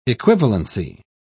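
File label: "equivalency", British English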